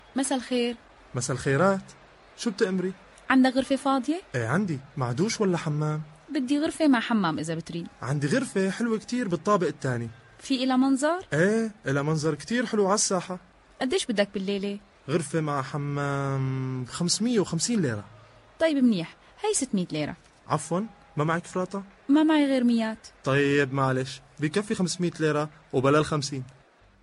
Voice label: syrian dialect